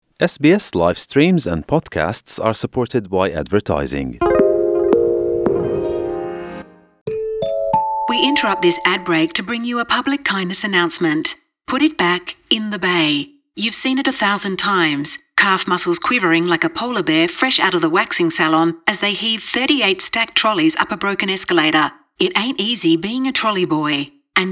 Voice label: slovak; sbs

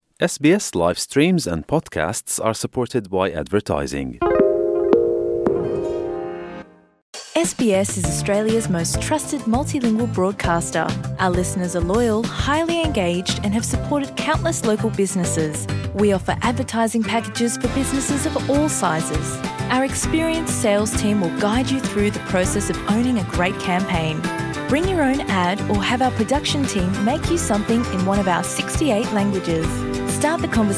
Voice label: slovenian news bulletin; sbs

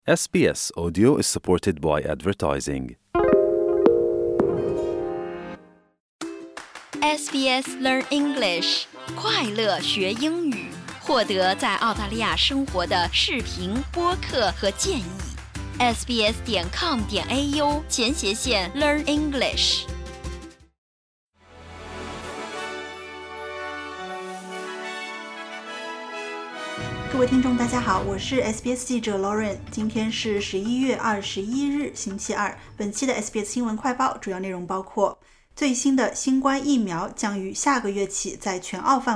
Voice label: mandarin news flash; sbs

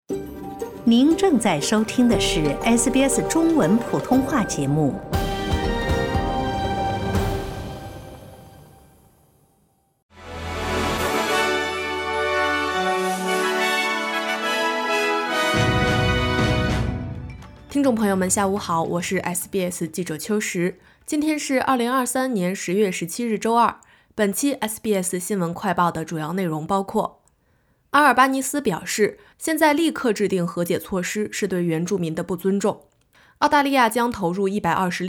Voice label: mandarin newsflash; sbs

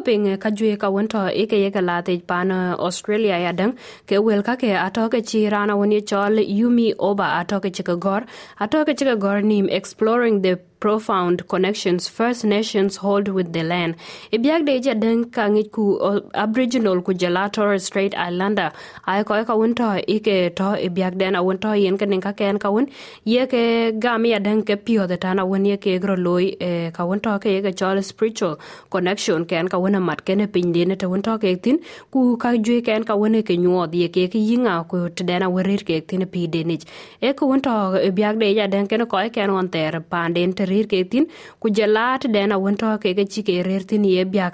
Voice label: dinka; sbs

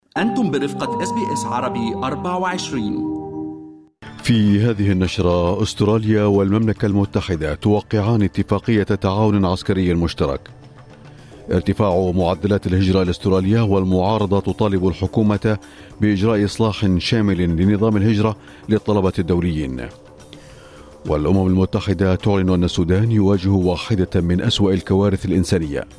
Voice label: arabic, newsflash

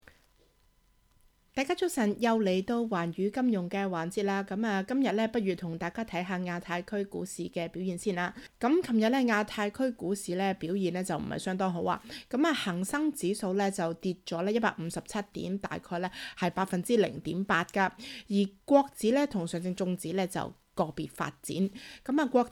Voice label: cantonese; sbs

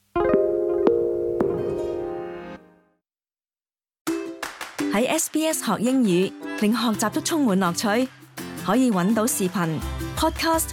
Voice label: cantonese; sbs

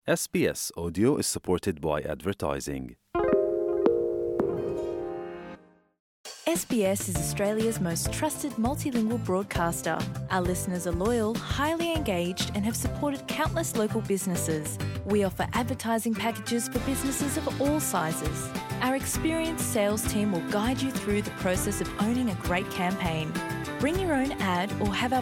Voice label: gujarati news bulletin; sbs

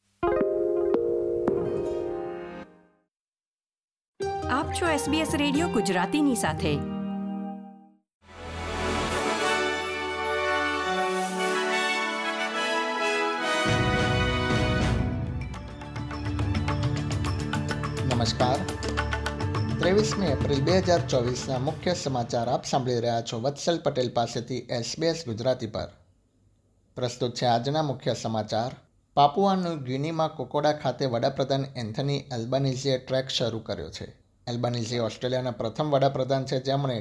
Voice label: gujarati news bulletin; sbs

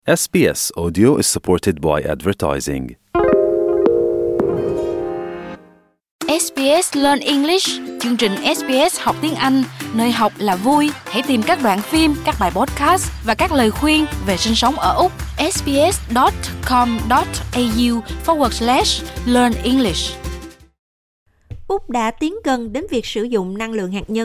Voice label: vietnamese explainer; nuclear; sbs

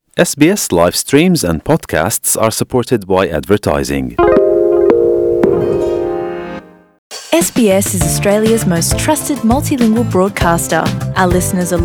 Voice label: slovak news; sbs

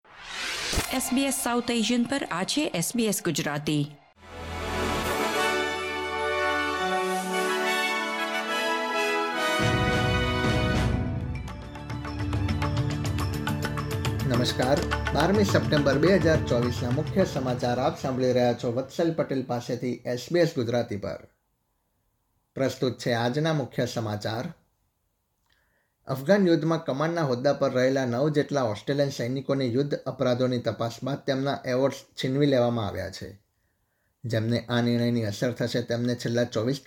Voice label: gujarati news bulletin; sbs

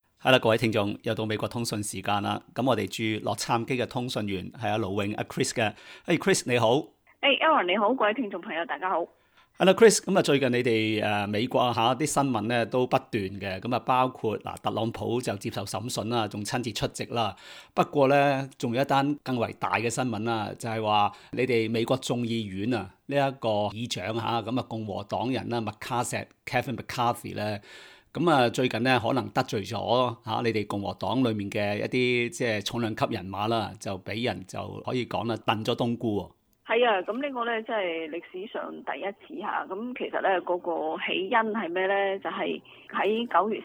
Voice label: cantonese; sbs; kevin mccarthy speaker

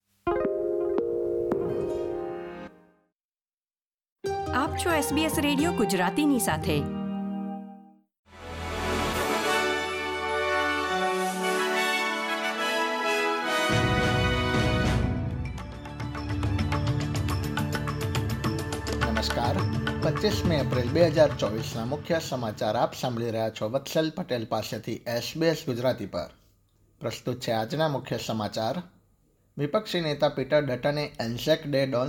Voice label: gujarati news bulletin; sbs

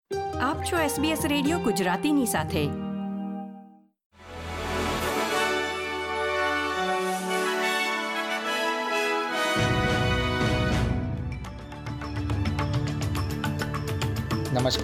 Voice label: gujarati news bulletin; sbs

